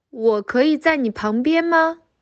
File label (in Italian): Cinese